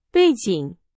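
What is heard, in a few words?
Chinese; hsk4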